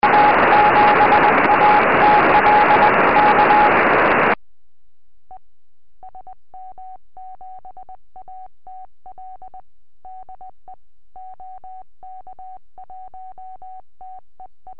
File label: 70cm, tropo